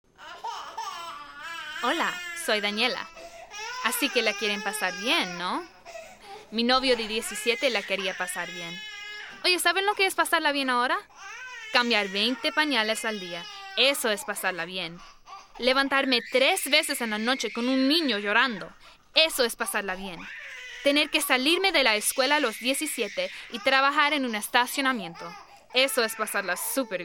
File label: Female Spanish; GoodTime; United Way Milwaukee